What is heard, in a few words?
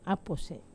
"apose", wamesa